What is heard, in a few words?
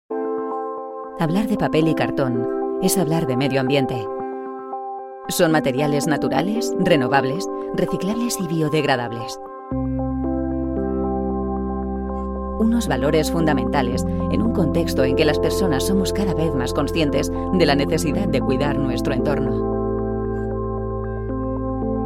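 F, Spanish, female, corp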